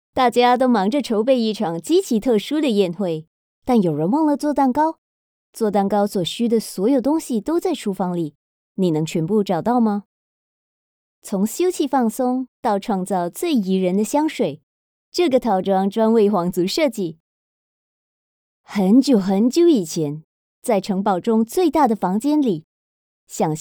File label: VO Young Chinese (Calm)